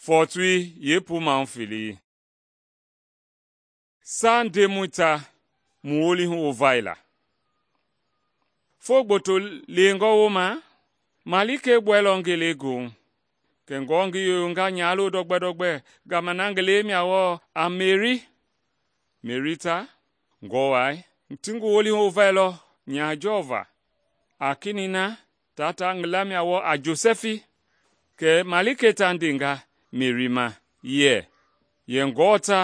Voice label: mende bsl nt drama; good news mende kpa

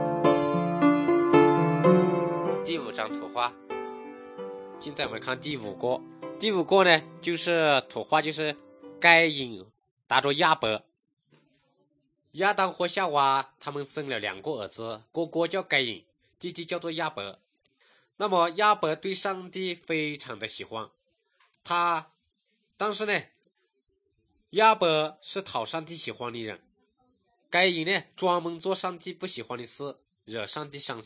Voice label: good news shan, drama

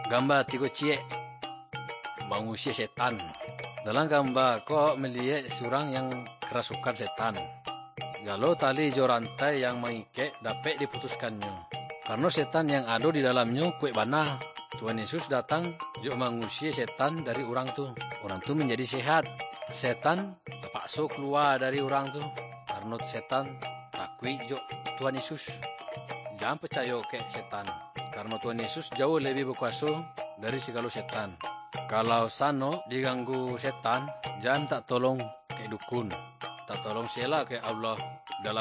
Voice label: good news minangkabau muko muko; kaba ilo

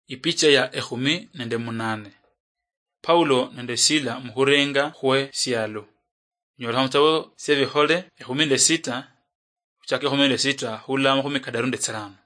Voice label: acts of the holy spirit luyia marachi, drama

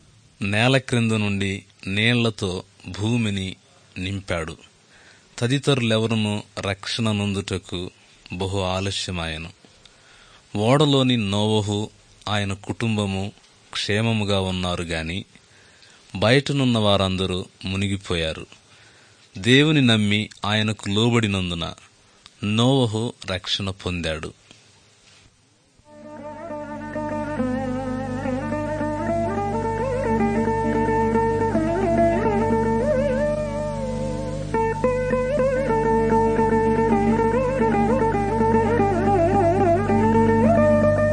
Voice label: good news telugu

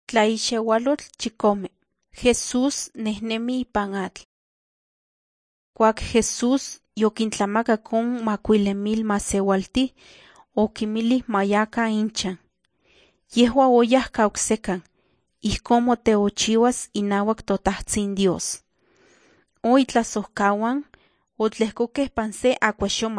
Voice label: nahuatl guerrero tlapa; the bible league nt drama

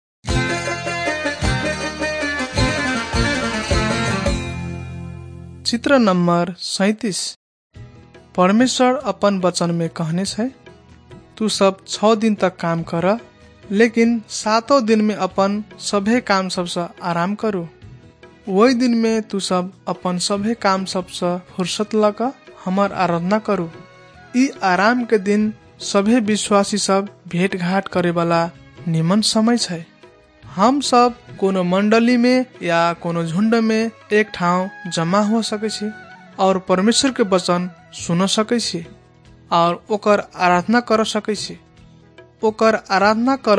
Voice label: maithili thetiya

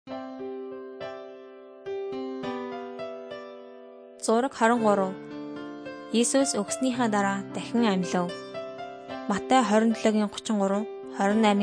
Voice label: mongolian

